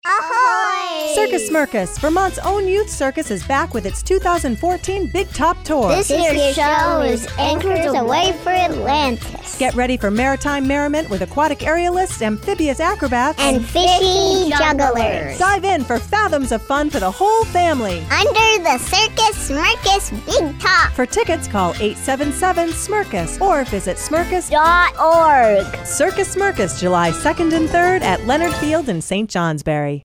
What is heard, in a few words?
english us and canada female generic